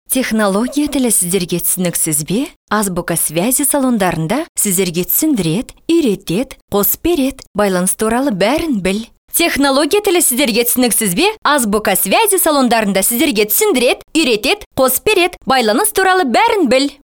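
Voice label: kazak female narration; voice demos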